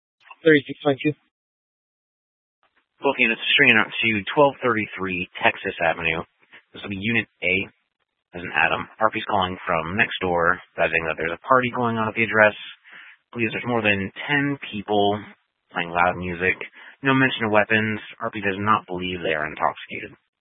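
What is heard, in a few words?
Police Dispatch, scanner audio; Police 1 Dispatch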